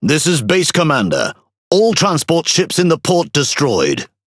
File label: Pirate; warning of team; World Of Warships